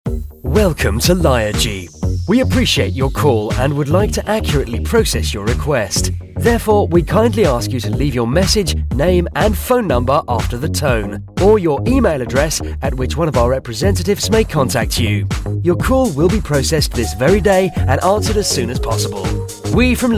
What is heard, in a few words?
uk